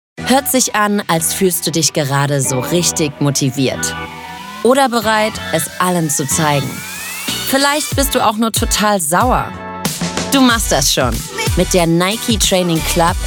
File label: German